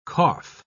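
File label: "qoph", British English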